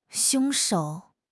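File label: "凶手", Mandarin Chinese; HSK6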